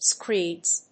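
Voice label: "screeds", English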